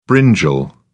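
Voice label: "brinjal", British English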